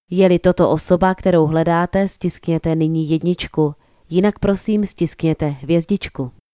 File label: czech Agent Confirmation